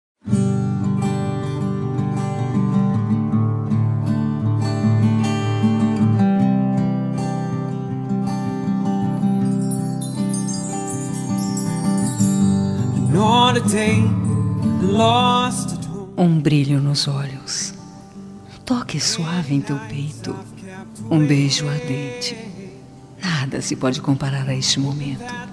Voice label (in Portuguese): ROMÂNTICA PICANTE FEM